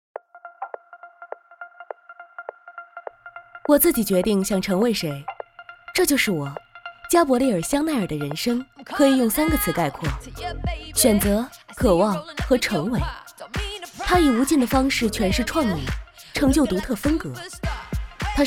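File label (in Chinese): 专业女声 【品牌广告】成熟女声; 中文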